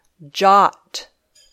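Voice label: "jot", English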